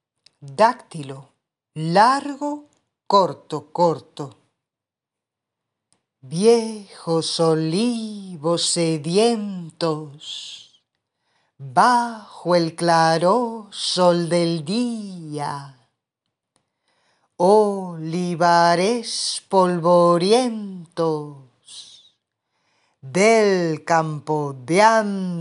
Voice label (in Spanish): Audio Metrica Clasica Dactilo